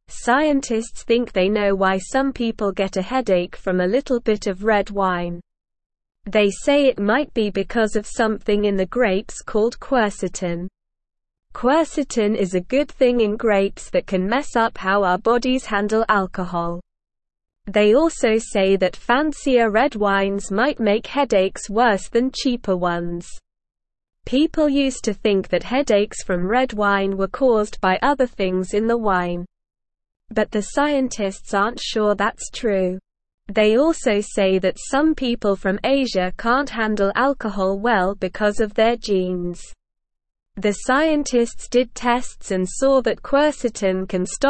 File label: English Lower Intermediate SLOW Reading; Why Does Red Wine Give Some People Headaches; English Newsroom